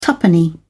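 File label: "tuppenny", British English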